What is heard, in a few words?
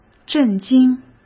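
"震惊", Mandarin Chinese; chinese-flashcards; hsk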